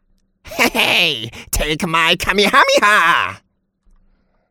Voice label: 英男 角色; 龟丞相

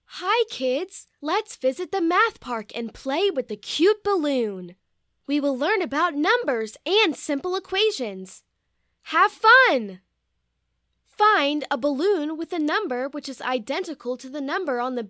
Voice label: 数学游戏指示 青少年音

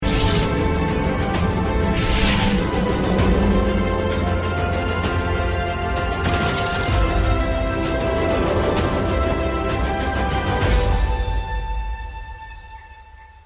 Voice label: 男 CCTV; 大国重器; 坐标中国

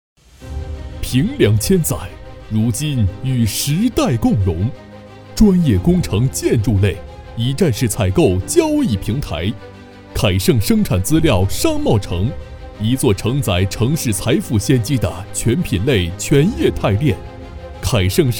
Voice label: 男 大气广告; 凯盛商贸城

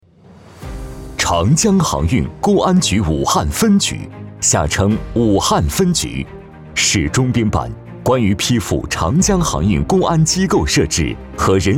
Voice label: 男 政府 权威 厚重; 武汉分局

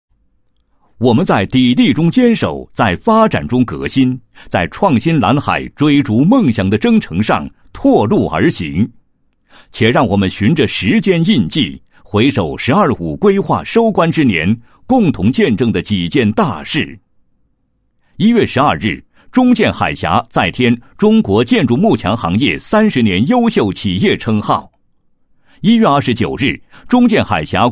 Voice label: 男 新闻; 2015年公司大事记

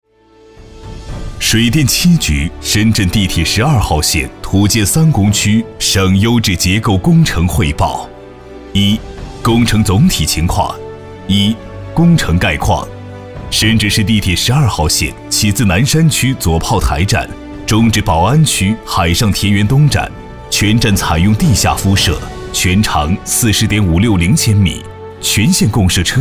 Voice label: 男 工程汇报 深圳地铁12号线

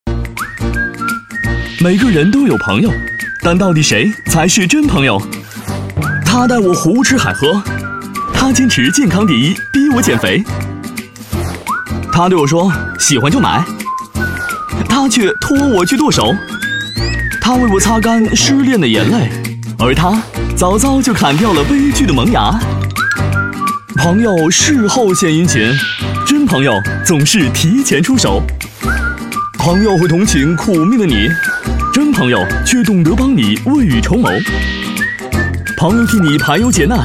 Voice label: 男, 售后, 轻松诙谐幽默; BMW

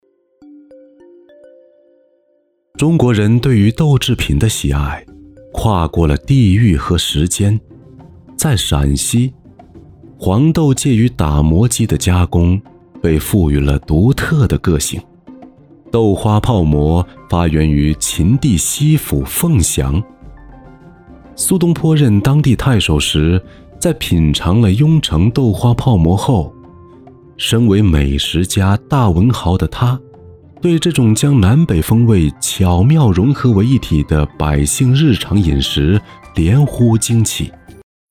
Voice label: 男 纪录片 舌尖风格; 豆花泡馍

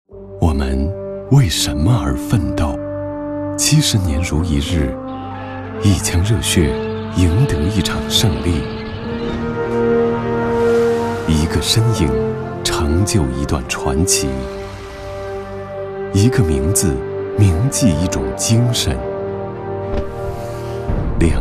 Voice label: 公益广告; 最美奋斗者

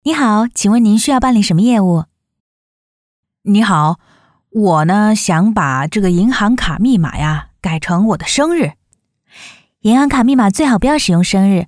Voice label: 山东 试音 2个角色

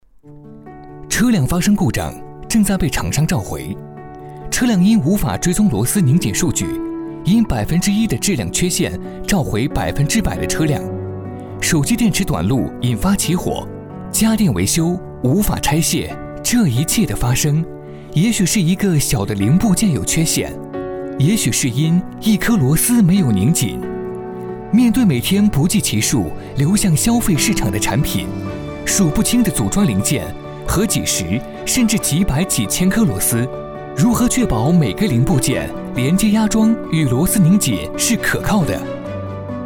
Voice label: 男 科技感

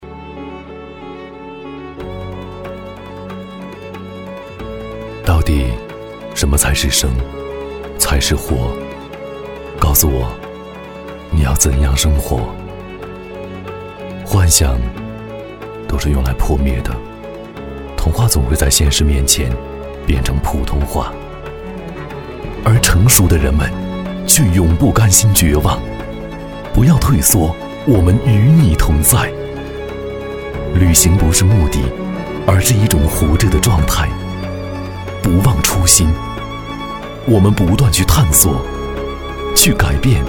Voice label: 男, 广告; 与梦同行

